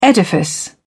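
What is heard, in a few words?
"edifice", British English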